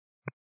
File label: 122 925MHz, scanner radio